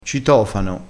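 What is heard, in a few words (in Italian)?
"citofano", dialettale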